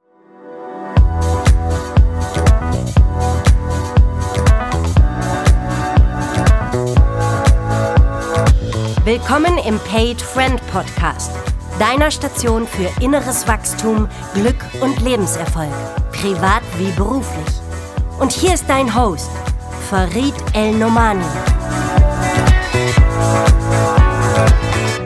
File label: podcast intro